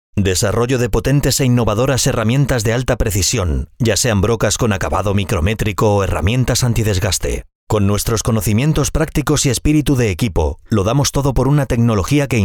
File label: male spanish castilian radio promo; ceratizit